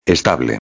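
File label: "estable", Spanish; ortografia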